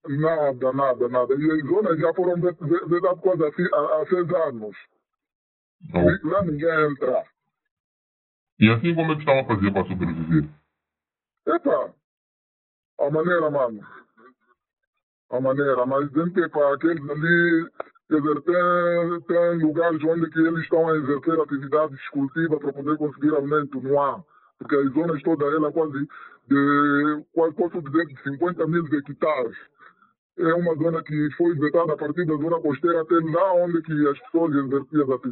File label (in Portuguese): voz distorcida; TotalEnergies; Maganja